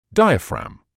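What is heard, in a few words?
"diaphragm", British English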